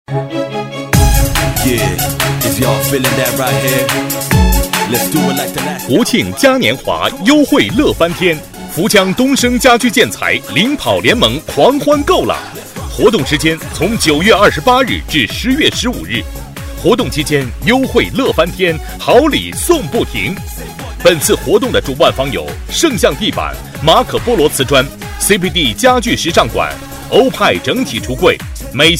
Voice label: 男 促销

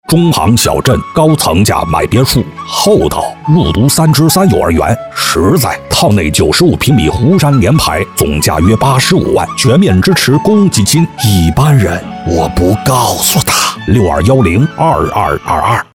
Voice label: 男 模仿

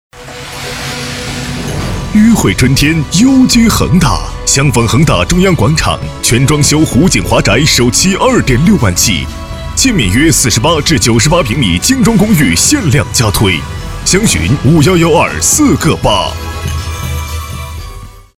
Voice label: 男 广告 房地产大气广告

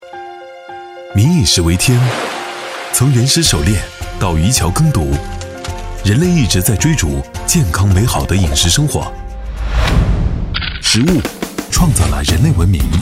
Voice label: 男 专题; 本味集第五版

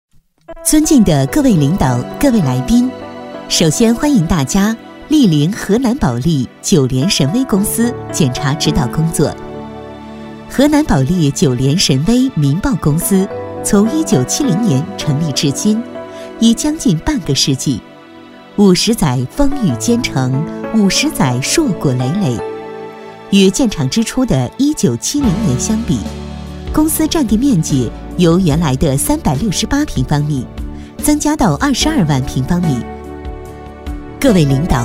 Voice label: 女 解说 展览馆解说词

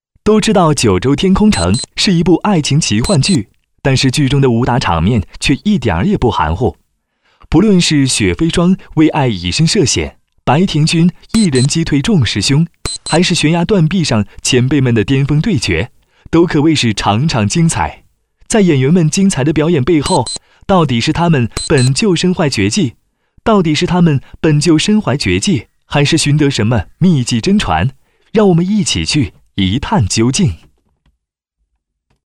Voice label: 男42 新闻 娱乐节目播报

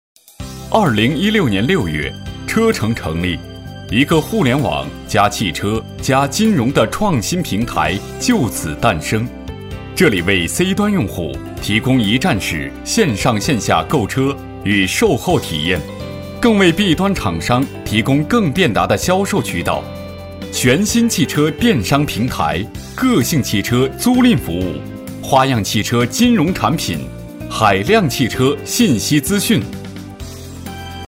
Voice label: 男 广告 零售; 车城零售

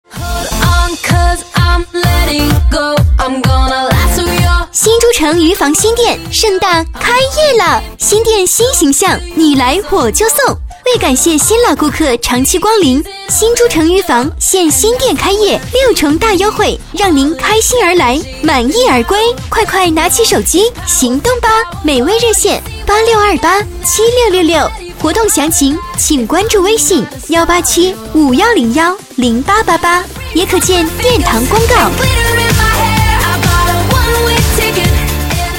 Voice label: 女7-广告-开业广告（青春）; 新珠城

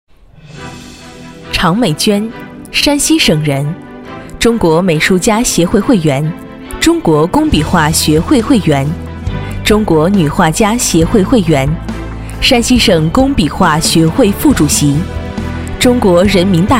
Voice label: 女-颁奖-活动颁奖词

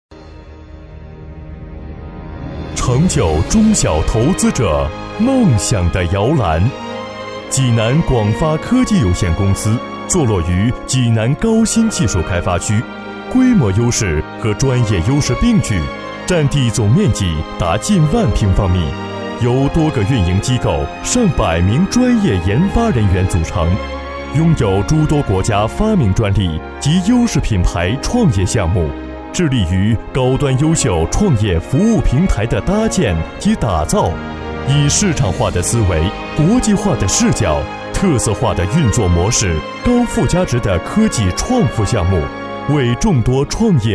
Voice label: 男95-专题-企业专题大气温情

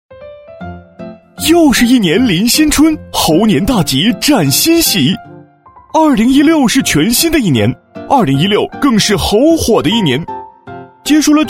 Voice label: 男; 飞碟说

